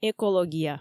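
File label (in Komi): "экология", Komi, female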